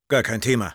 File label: Sniper positive vocalization, de; Gar kein Thema.